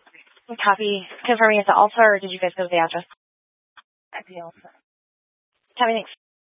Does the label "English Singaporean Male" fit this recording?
No